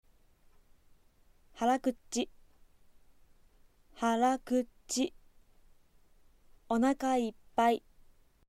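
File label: "full stomach", Aizu dialect Japanese; onakaippai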